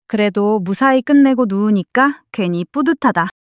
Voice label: joy

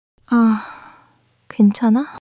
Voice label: sadness, monologue

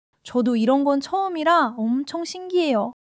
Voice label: joy; monologue

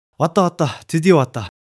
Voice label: excitement

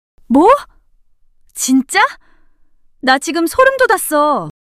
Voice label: surprise, monologue

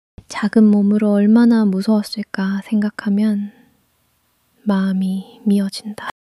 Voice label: sadness

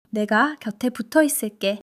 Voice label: joy

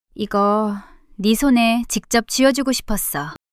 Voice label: shy; monologue

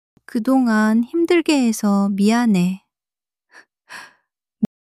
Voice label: crying, monologue

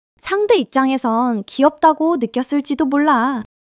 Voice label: excitement; monologue

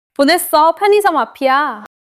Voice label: neutral, monologue

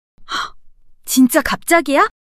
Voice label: surprise, monologue

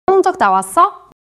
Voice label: neutral, monologue

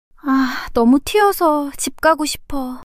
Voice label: shy; monologue

